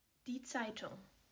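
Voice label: "Zeitung", German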